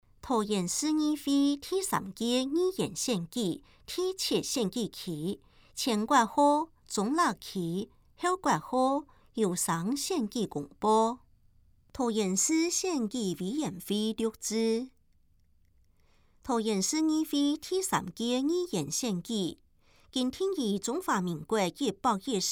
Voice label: 前言, 客語; 有聲公報; 市議員

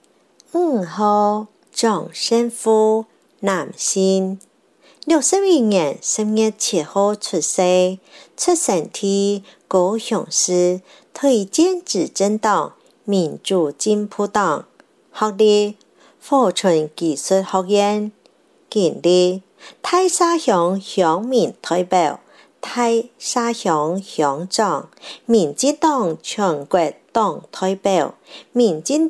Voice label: 客語; 第五選區

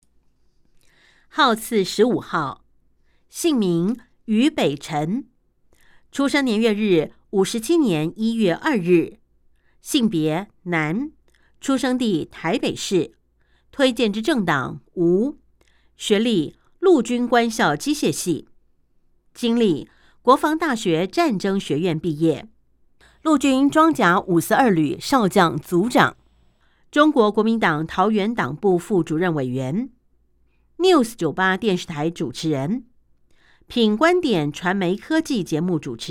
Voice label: 國語; 有聲公報; 市議員

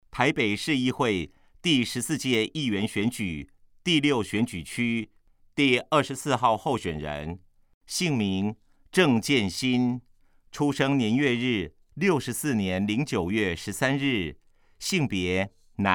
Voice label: 國語; 臺北市; 有聲公報; 市議員; 臺北市議會第14屆議員第6選舉區 (大安、文山區)候選人介紹

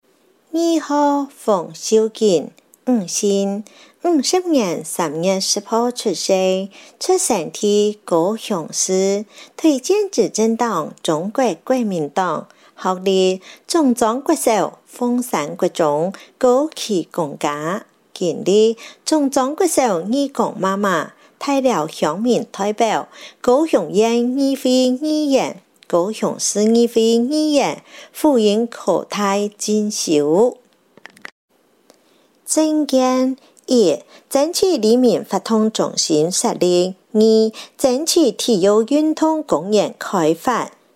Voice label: 客語; 高雄市; 高雄市市長議員有聲選舉公報; 第十一選區

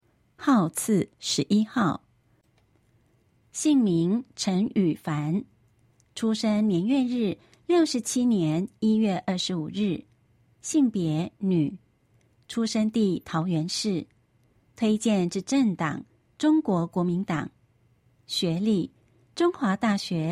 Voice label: 國語; 有聲公報; 市議員

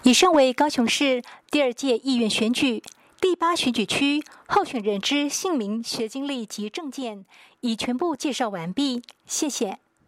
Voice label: 國語, 有聲選舉公報; 高雄市; 高雄市市長議員有聲選舉公報; 第八選區

